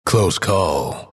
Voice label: announcer victory; dlc bastion